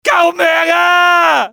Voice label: "help", male; XBOX; civ